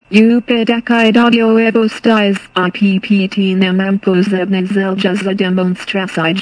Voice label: speech hr